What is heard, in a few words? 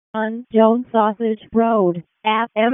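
Fire Department Alert, p25; wake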